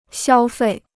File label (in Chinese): "消费", Chinese